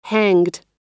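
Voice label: "hanged", American English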